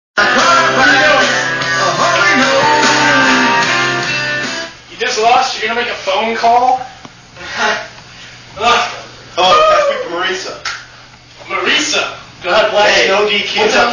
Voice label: phonecall